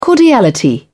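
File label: "cordiality", British English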